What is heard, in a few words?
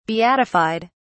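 "beatified", British English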